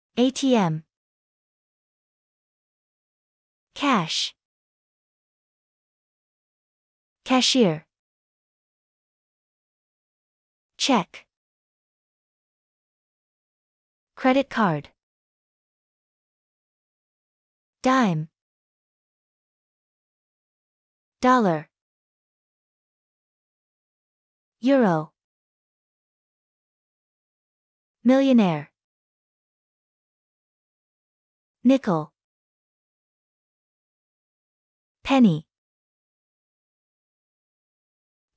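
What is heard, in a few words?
American; money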